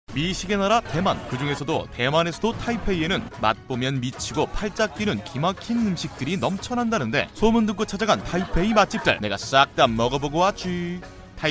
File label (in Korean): 나레이션 재미있는; 마이리얼트립